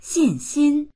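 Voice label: "xin4xin1", Mandarin Chinese; 信心; 自信、信念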